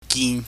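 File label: "gin", Cantonese, mid level tone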